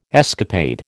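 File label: "escapade", American English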